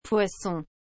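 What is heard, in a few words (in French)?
"poisson", French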